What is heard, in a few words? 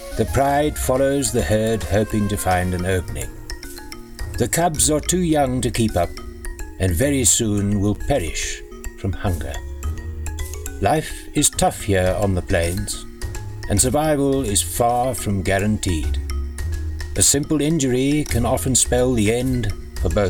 Documentary Lions